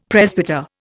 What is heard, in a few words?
"presbyter", Indian; Dictionary